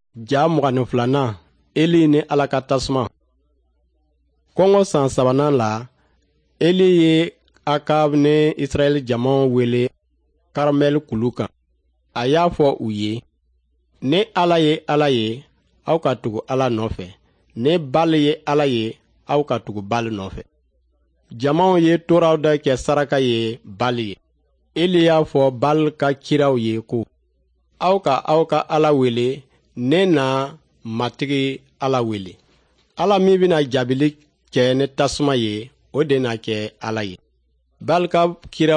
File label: nt drama, jula; servants of god